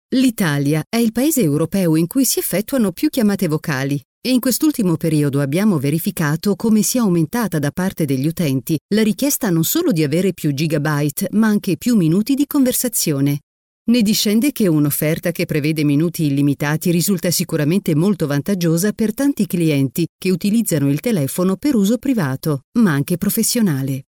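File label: Italian, female; COOPVOCE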